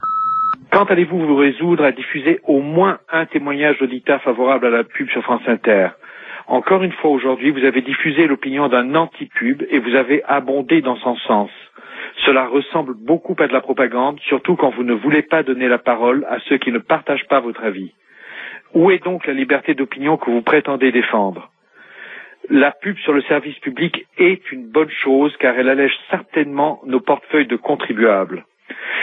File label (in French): Pub répondeur; France Inter